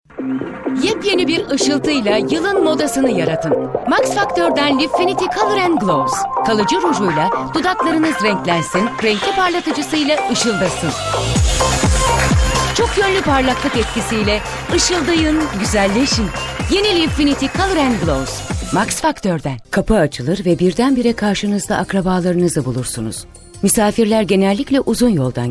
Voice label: turkish f commercial